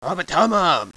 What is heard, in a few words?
viet he is seriously injured